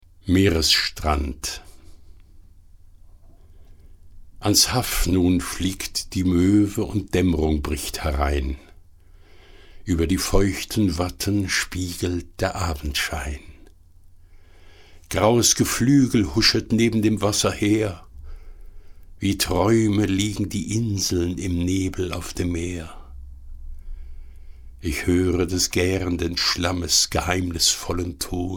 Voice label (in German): storm gedichte